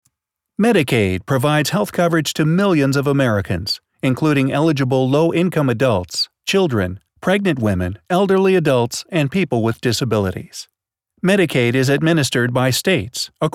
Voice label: Promotional